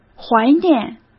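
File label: "怀念" Mandarin Chinese; chinese-flashcards; hsk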